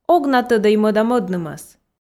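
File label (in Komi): Komi, ог на тӧдӧй мӧда-мӧднымӧс, female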